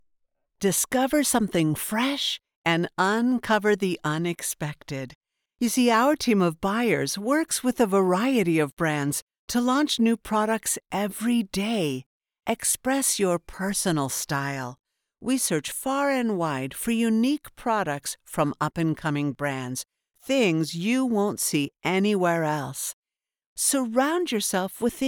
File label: Clothing Sincere Happy Mature Mid tone, voiceover